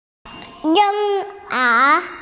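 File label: "baby", Mandarin Chinese, female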